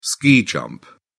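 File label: "ski jump", British English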